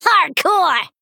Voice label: Female Goblin Attack